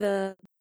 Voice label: disfluency